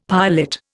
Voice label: "piolet", Indian; Dictionary